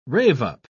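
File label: "rave-up", British English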